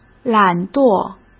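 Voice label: "懒惰", Mandarin Chinese; chinese-flashcards; hsk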